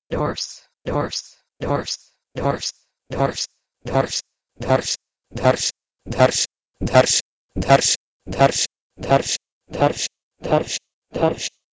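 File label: Proto-Indo-European dhors to Persian daashtan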